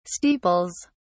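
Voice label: "steeples", British English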